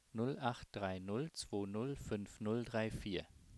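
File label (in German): ziffern, German, male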